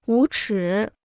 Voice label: "无耻", Chinese